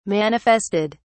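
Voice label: "manifested", British English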